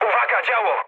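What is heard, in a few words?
Polish damaged anti-aircraft mood medium; War Thunder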